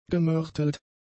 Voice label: "gemörtelt", German; adjectives